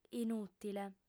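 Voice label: "inùtile", Sardinian, female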